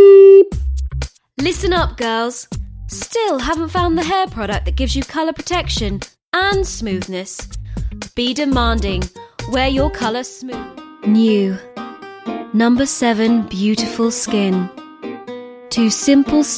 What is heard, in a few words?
Compilation Commercial Full Voice